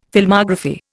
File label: "Filmography", Indian